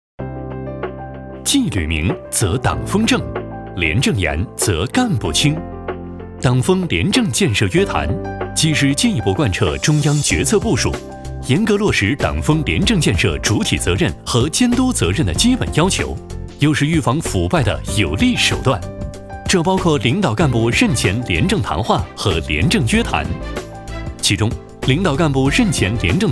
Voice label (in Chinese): 男, 党政MG风格